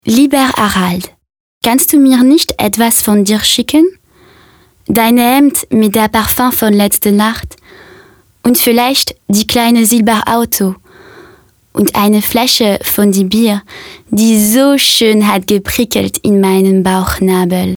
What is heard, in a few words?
commercial weizen; schoefferhofer weizen